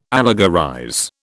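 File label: "allegorize", British English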